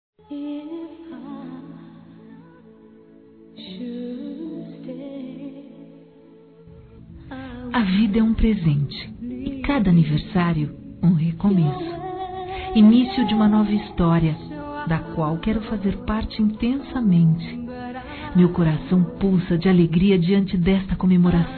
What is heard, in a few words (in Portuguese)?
Romântico Feminino